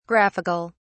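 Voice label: "graphic(al)", British English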